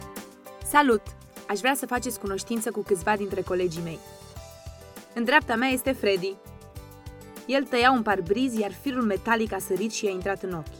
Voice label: Romanian, Female, 20s-30s; Eastern European